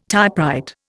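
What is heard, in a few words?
"typewrite", Indian English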